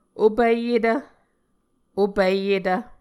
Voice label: "opiyetv", Muscogee